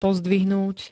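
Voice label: "pozdvihnúť", Slovak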